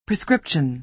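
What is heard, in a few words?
"prescription", American English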